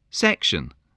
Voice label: "section", english spelling

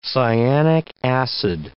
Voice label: "cyanic acid", english

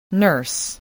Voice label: "nurse", voice, spelling

